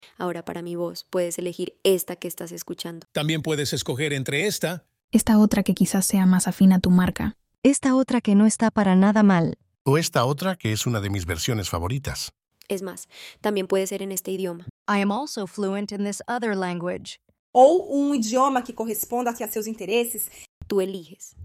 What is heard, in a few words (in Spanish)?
VocesBiky idiomas mezcla